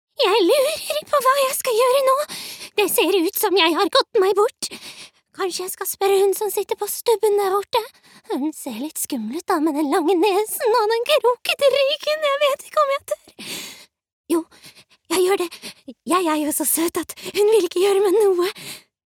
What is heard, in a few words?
no dub character